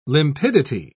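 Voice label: "limpidity", British English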